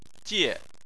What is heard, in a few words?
"jie4", Chinese